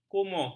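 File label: "kumuh", Malay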